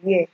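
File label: "where", Nigerian Pidgin, female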